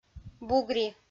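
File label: "бугри", Komi, female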